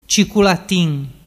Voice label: "ciculatin", dialettu

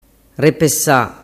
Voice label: "repesa", dialettu

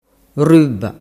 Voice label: "rubba", dialettu

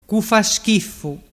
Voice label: "cufaschiffu", dialettu

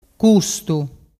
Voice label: "custu", dialettu

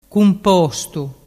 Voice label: "cumpostu", dialettu

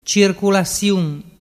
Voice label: "circulasiun", dialettu

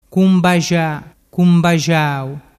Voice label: "cumbaxaxau", dialettu

